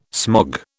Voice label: "smog", British